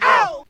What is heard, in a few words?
"oh", group of women; ooh ahh